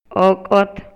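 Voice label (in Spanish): Maya, Yucatán, male; MOVIMIENTO; INALI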